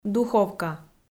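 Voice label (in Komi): "духовка", Komi, female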